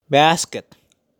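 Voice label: "Basket", English; Compras; shopping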